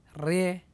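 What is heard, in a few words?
"rie", syllable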